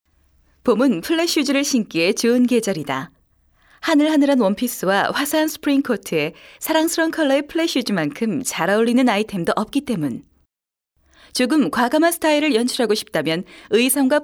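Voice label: Korean female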